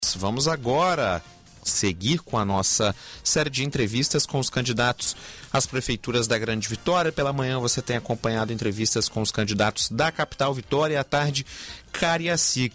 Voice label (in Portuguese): LOCUTOR; CARIACICA